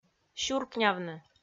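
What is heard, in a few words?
"сюркнявны", Komi, female; kpv audio dict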